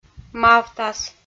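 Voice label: "мавтас" Komi, female; kpv audio dict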